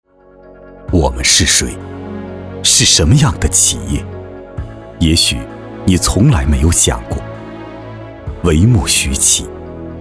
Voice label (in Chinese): 男国 企业宣传片 深沉大气; 大运公司